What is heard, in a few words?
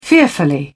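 "fearfully", British English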